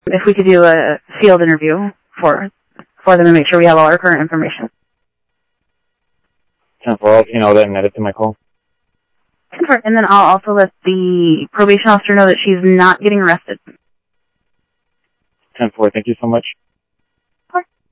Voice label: Sheriff, scanner audio; MCSO - Info Sheriff - Common; South Scottsdale